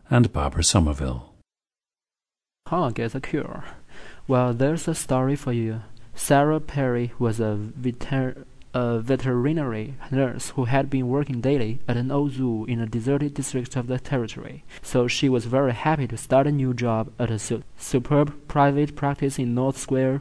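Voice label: henan, International Dialects of English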